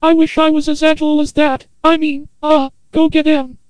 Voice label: Antenna Voice